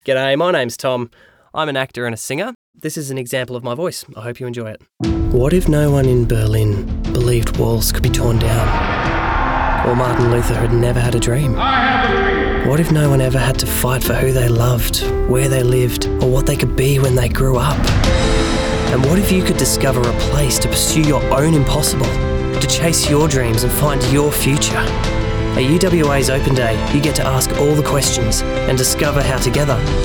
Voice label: VO Demo; Actor, Voiceover; Location Perth